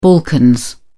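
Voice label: "balkans", British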